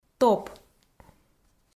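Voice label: "топ", Komi, female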